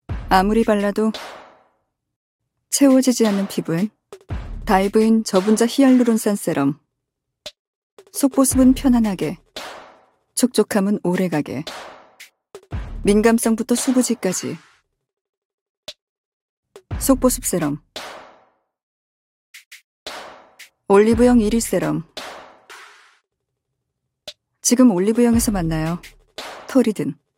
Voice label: 광고 샘플 세럼 엣지 있고 도시적인 느낌; 토리든 세럼

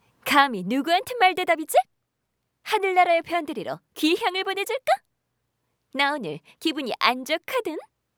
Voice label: 건방진 20대; 샤오공주

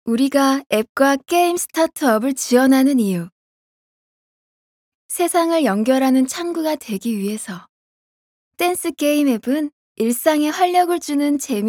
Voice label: 세련된 일반인느낌

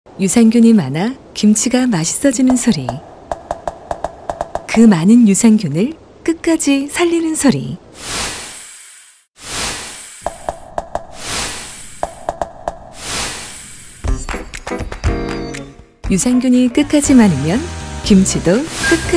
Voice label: 편안한 30대; 김치톡톡

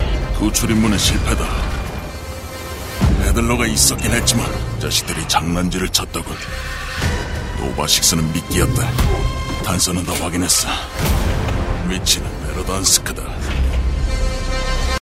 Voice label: 터프한 30대

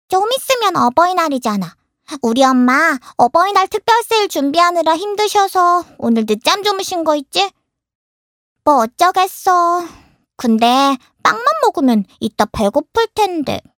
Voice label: 새침한 귀여운 여아 연기 10대

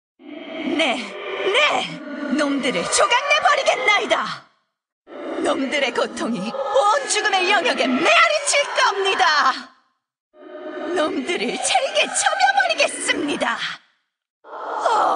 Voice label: 35세 피에 굶주린 사이코틱한 마검; 월드오브워크래프트; 레모르니아

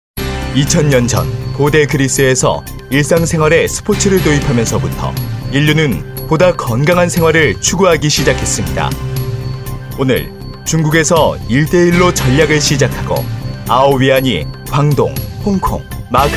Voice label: 지적이고 명료한 홍보

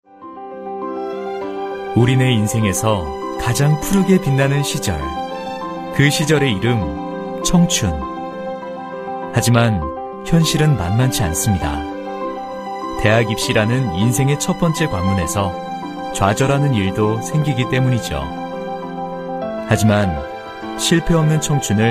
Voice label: 따뜻한 나레이션

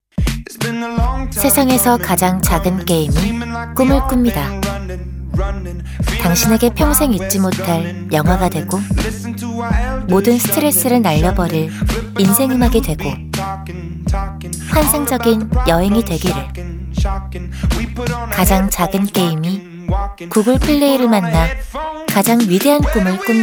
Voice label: 부드러운 편안한

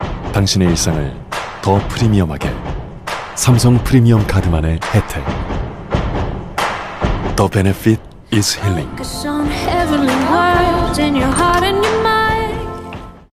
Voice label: CF 시크한 신뢰감; 삼성카드

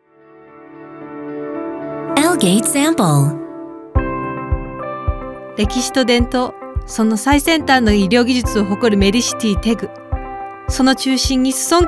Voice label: 일본 여 밝은 톤

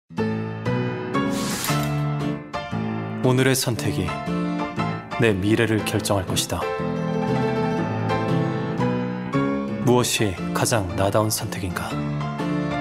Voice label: 광고 남아; 블루본아이노우; 그들의 선택 남아편

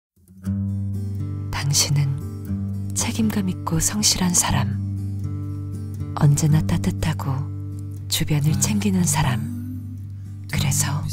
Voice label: 낮고 속삭이는 느낌의 캠페인광고 30대

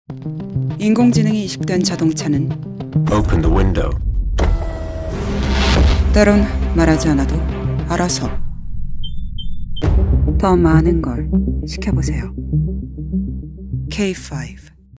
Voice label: 샘플 자동차 시크한 담백한 여유있는

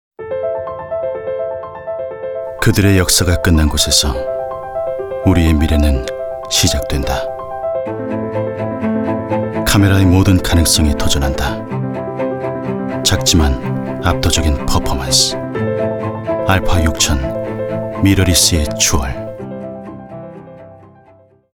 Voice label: 차분한; SONY카메라

